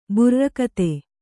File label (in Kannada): "ಬುರ್ರಕಥೆ", Kannada